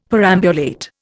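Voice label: "perambulate", Indian English; Dictionary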